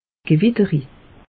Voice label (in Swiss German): "gewitterisch", alsace; meteo